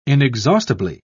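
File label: "inexhaustibly", British English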